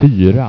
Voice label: "fyra", Swedish

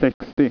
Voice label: "sextio", Swedish

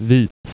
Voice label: "vit", Swedish

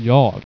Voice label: "jag", Swedish

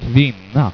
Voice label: "kvinna", swedish

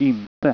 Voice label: "inte", Dutch

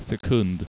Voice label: "sekund", Swedish

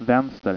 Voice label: "vaenster", Swedish

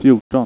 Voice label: "fjorton", Swedish

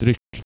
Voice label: "dryck", Russian

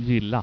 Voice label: "gilla", Dutch

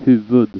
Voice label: "huvud", Swedish

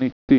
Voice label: "nittio", Swedish

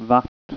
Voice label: "vart", Swedish

